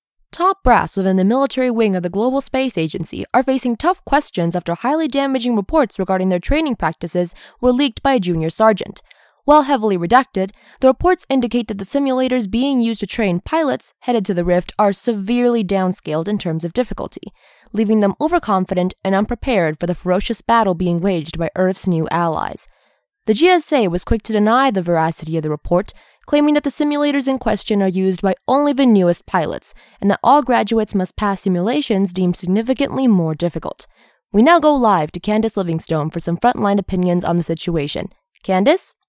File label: USA